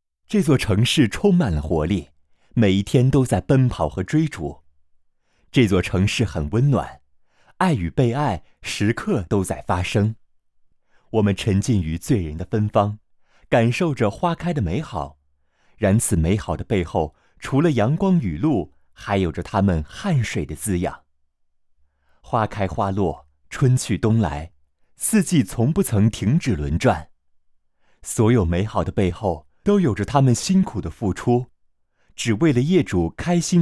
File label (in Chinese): 专题公益温暖